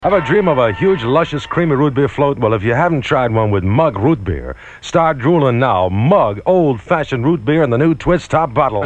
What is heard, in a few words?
Mug Root Beer Advertisement; KHJ